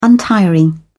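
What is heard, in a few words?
"untiring", British English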